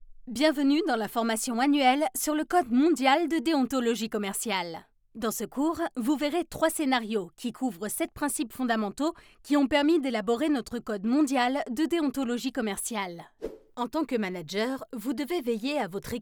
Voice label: elearning